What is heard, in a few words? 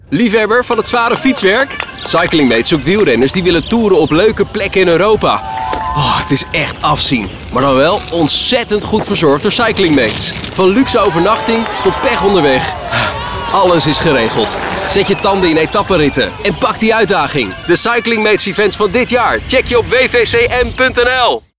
Commercial; Wielervereniging Cycling Mates 2022; Simone FM